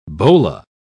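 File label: "bola", British English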